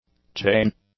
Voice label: "chain", English; nouns

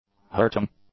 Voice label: "hurting", English; adjectives

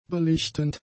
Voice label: "belichtend", German; adjectives

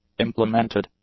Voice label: "implemented", English; adjectives

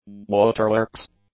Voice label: "waterworks", English; nouns

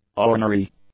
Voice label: "ornery", English; adjectives

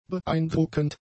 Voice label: "beeindruckend", German; adjectives

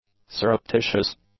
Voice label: "surreptitious", English; adjectives

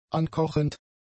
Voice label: "ankochend", German; adjectives

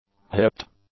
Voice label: "hipped", English; adjectives

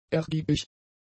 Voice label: "ergiebig", German; adjectives

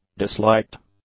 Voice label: "disliked", English; adjectives

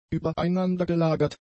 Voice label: "übereinandergelagert", German; adjectives

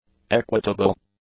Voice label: "equitable", English; adjectives

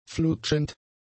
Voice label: "flutschend", German; adjectives

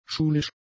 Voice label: "schulisch", German; adjectives

